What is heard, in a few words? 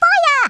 "fire", Brooklyn; Worms Armageddon